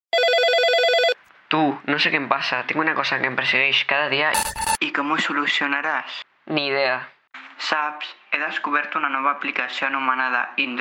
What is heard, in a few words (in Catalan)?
Falca de ràdio; Indrogate